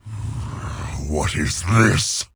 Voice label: jeers; garrosh